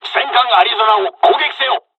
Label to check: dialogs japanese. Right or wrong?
Right